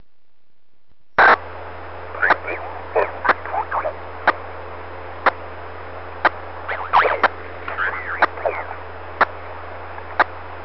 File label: MASC Voice Scrambling